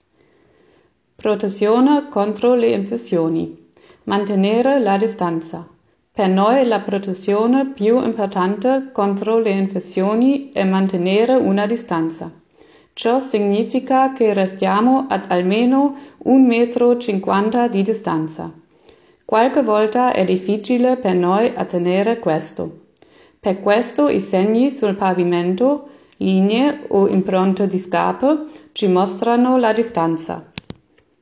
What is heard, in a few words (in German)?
Italienisch